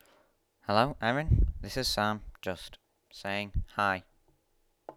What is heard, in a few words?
voice, Accent